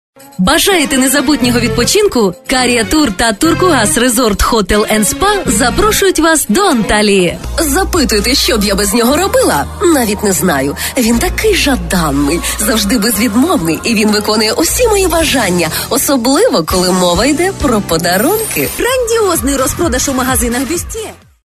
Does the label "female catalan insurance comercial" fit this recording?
No